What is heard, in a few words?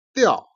"jian", Chinese